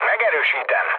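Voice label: player killed enemy, mood low, Hungarian; War Thunder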